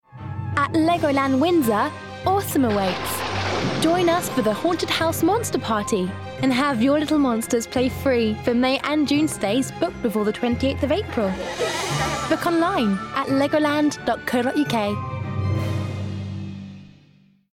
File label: female English estuary accent commercial; english and french; voices; legoland windsor; devine voices